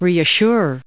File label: "reassure", en us